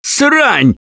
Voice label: reaction negative, russian; Gambler